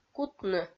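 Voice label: "кутны", Komi, female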